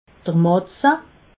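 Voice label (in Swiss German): "matze", Alsatian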